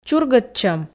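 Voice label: "чургӧдчӧм", Komi, female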